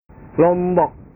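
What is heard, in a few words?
"lombok", Indonesian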